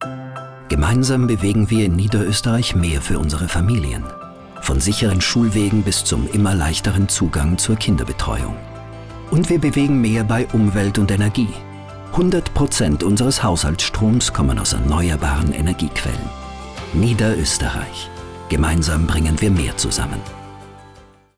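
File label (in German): Hörfunk WERBUNG Spot Niederösterreich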